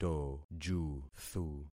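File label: "tojuthu", concatenated syllables; Affixing experiment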